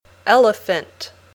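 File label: "elephant", English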